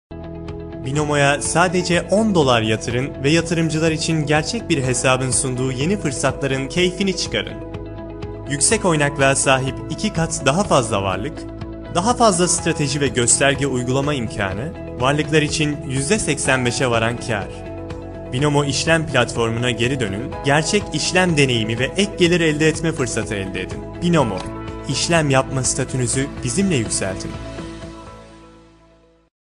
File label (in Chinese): 土耳其男声